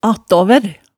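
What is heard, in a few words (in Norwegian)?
"attaavær", Hemsedal dialect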